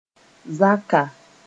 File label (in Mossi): "zaka", moore